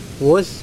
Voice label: "coral", Mokilese